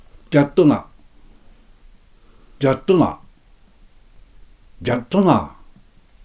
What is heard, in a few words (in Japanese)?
出水方言, 質問; [ジャッ#ト]]ナ(F%) 「そうなのか？」; wav形式のPCM音声です。録音は、T氏(1931年4月生まれ)にお願いしました。